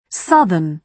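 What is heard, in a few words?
"southern", British English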